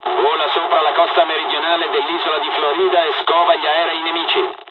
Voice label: waypoint fly, dialogs italian; War Thunder